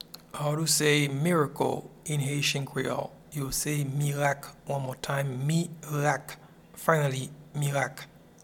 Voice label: Miracle in Haitian Creole Mirak